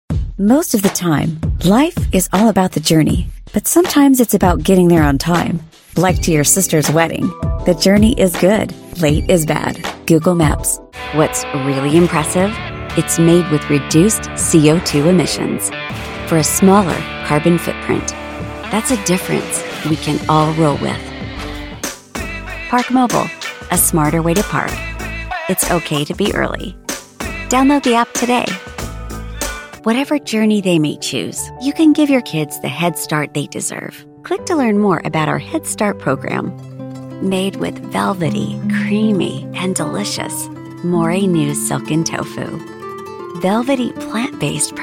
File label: english american commercial; United States